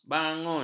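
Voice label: "bangun", Malay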